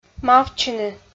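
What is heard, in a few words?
"мавтчыны", Komi, female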